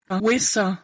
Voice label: "awesa", Proto Hellenic